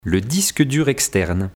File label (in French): "le disque dur externe", vocabulaire; vocabulaire PMTIC